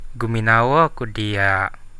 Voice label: i love you, Central Dusun